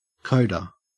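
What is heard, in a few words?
"coda", Australian English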